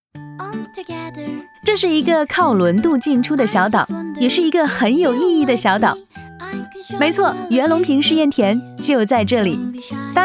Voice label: 宣传片 旅游 文旅宣传 旅游 vlog

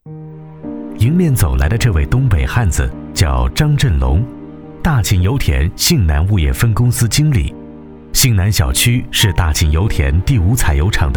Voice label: 专题 人物 沉稳, 男国; 心系百姓的好干部

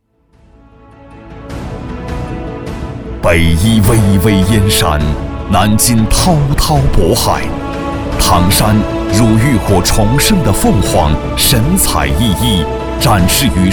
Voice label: 宣传片 城市 大气; 唐山宣传片

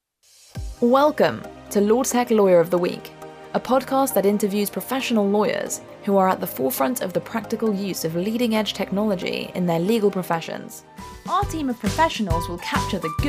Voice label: 外籍女英 外语 英式英语 各类样音

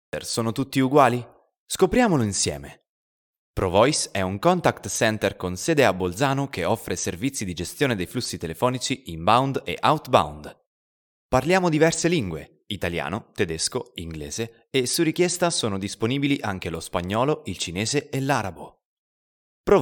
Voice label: 意大利语男 外语 小语种

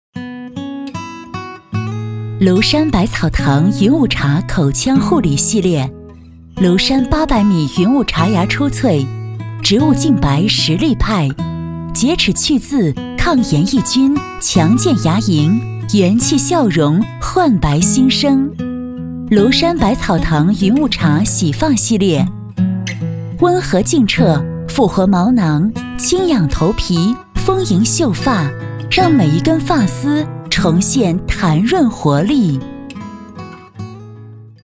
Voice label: 女 专题 产品 洗护理系列产品; 山百草堂

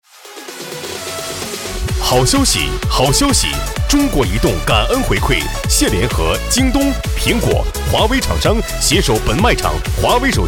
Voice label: 男国 广告 促销 卖场宣传 大气

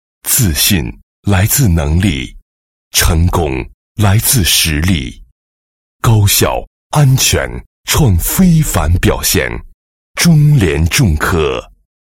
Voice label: 广告 品牌形象; 中联重科

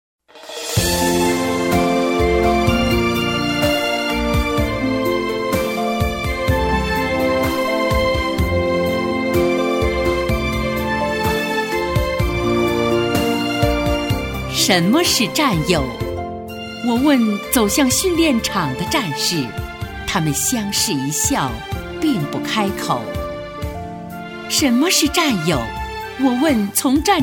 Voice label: 朗诵; 战友情

